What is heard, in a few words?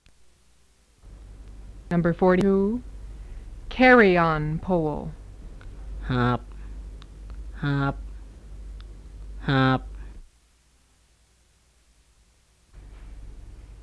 THAI Petburi Tones of Tai Dialects